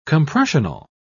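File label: "compressional", English, British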